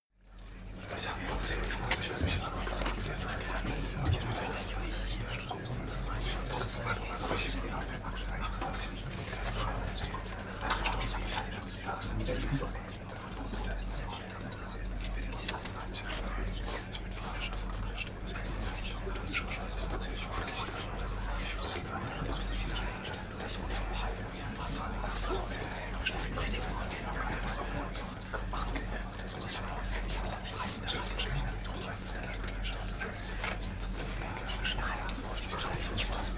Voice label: male crowd whispering